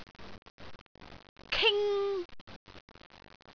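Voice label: "ing2", Cantonese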